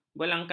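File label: "belangkas", Malay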